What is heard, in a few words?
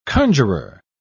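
"conjuror", British English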